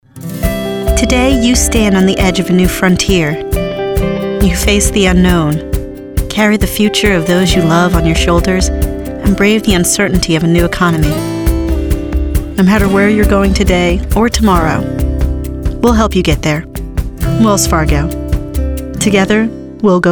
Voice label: Commercial; Female Voice Talent; Commercial Demo

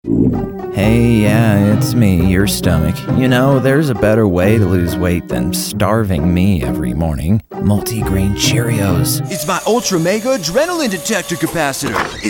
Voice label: Character

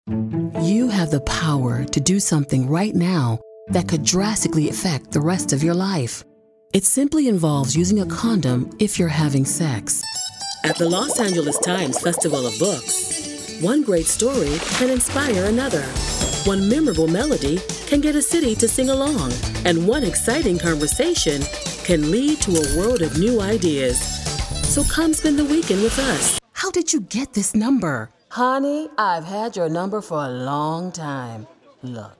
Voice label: Commercial; Commercial Demo

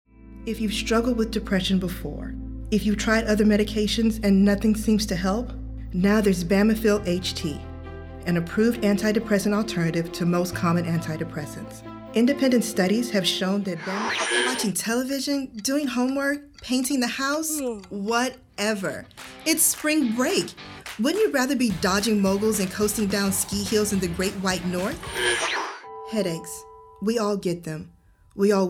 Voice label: Commercial VO; Female Voice Talent; Commercial VO Reel

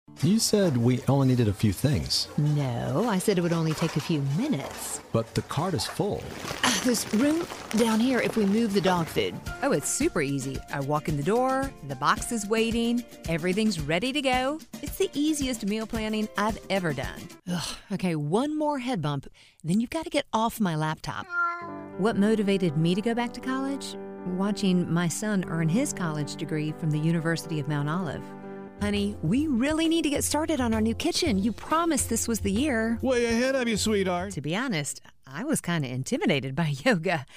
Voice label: Character; Female Voice Talent

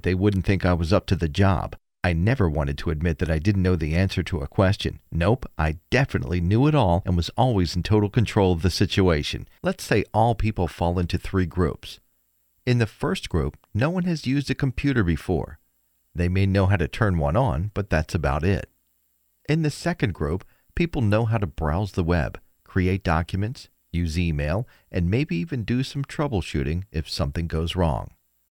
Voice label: Narration Training; Male

